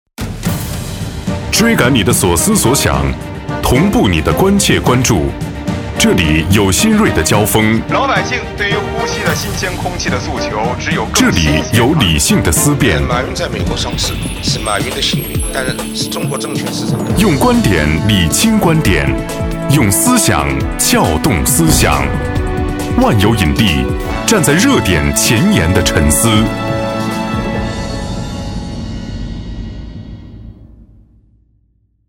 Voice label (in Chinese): 男 片花; 万有引力